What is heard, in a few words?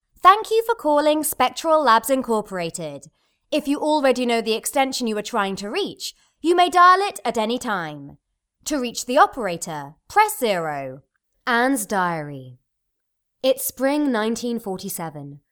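english female; lektoring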